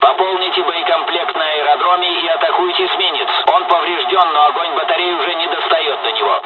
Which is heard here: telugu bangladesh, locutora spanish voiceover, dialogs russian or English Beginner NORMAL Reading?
dialogs russian